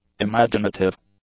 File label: "imaginative", English; adjectives